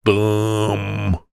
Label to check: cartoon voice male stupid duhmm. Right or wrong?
Right